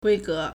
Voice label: "gui1ge2", Chinese